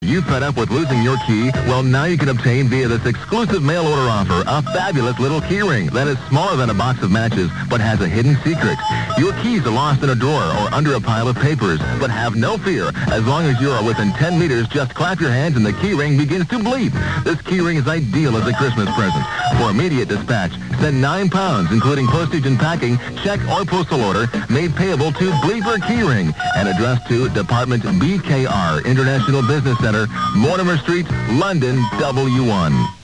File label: commercial keyring